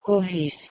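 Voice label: "kouh1is", Proto-Indo-European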